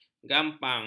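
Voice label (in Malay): "gampang", Malay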